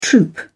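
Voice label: "troop", British English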